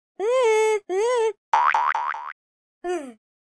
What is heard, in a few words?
taunt, speech Hippy